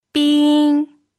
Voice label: "bin1", pinyin

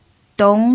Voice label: "dong2", pinyin

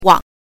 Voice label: "wang", pinyin

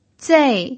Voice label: "zei4", pinyin

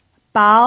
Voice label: "bao2", pinyin

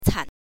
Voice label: "can5", pinyin

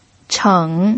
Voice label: "cheng3", pinyin

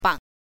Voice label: "bang5", pinyin

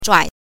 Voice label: "zhuai", pinyin

